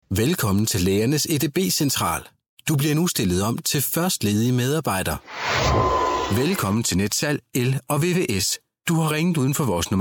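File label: danish male ivr, voice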